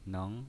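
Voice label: "neng", pinyin voice, Mandarin Chinese, second tone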